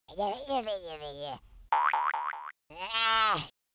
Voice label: taunt, Horror; speech